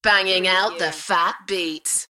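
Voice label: UK female, voice drop; Banging the fat beats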